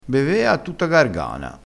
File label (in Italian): "a tutta gargana", Crespinese